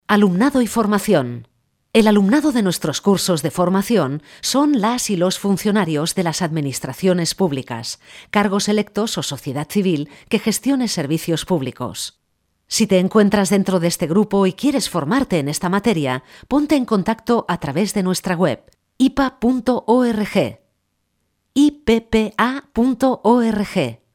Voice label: locutora spanish voiceover; Spanish voice over talent